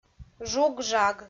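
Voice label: "жуг-жаг", Komi, female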